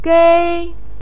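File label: "gei", Mandarin pinyin, tone 1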